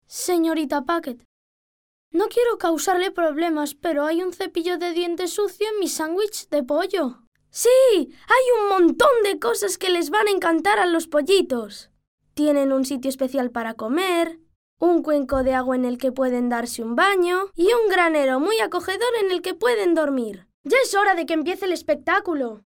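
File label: Spanish boy; children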